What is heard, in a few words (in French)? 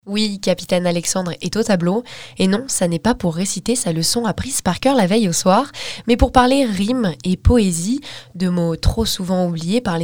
slam college